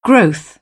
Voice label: "growth", British; oʊ - əʊ; English

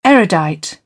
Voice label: "erudite", British; English

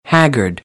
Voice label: "haggard", American

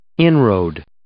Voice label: "inroad", English

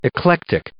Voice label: "eclectic", English

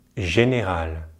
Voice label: "général", French; easypronunciation

